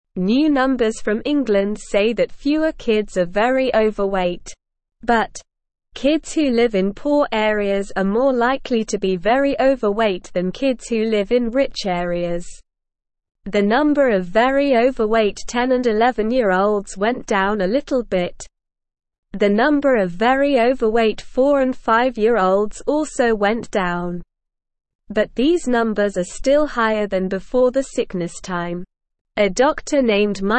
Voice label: English Newsroom Beginner SLOW Reading; Fewer Kids Very Overweight But Poor Kids More